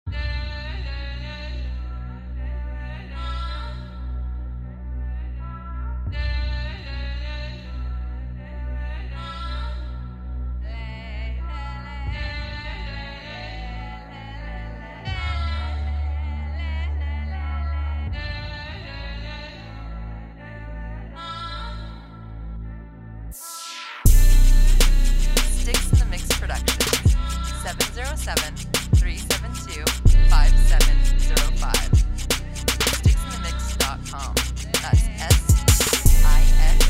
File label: Distant Voices